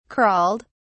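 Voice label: "crawled", English, British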